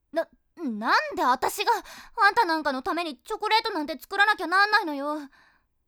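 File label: tsundere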